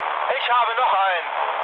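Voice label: German player killed enemy mood low, speech; War Thunder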